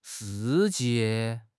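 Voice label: "shi4 jie4", Mandarin Chinese; HSK3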